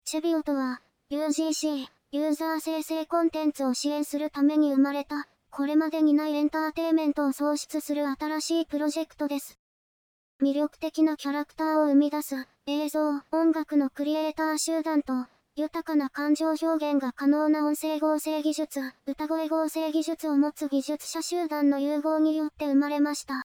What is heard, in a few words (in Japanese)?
voice kanashimi